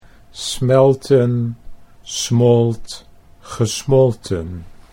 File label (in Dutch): "smelten smolt gesmolten", Dutch